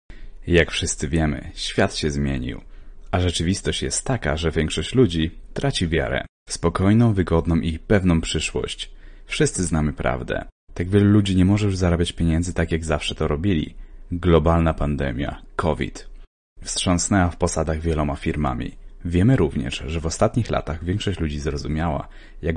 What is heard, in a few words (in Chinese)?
波兰语男声